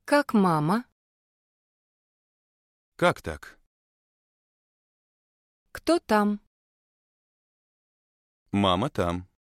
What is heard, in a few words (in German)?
Einfache russische Sätze